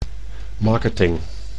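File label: "marketing", Dutch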